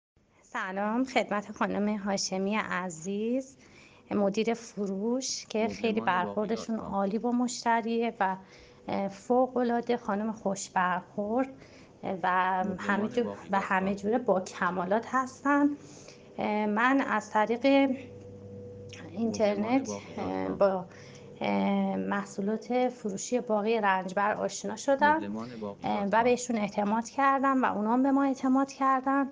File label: customer voice for aluminium outdoor furniture; Torbat Heydariyeh Khorasan